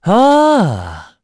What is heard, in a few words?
Vox Happy kr; Esker; kingsraid